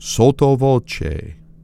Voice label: sotto voce